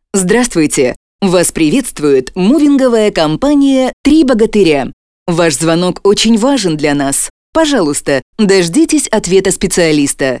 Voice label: IVR